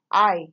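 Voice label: "eye", Nigerian Pidgin, female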